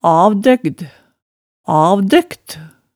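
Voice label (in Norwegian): "avdøgd, avdøkt", Hemsedal dialect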